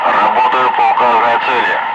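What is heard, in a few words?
Russian speech, Ka-50 Wingman; engaging designated target; DCS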